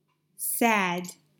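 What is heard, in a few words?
"Sad", English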